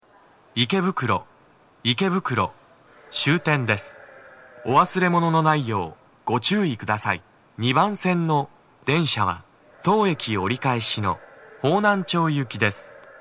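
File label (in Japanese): 到着放送 男声; 池袋; 放送は「方南町行」です。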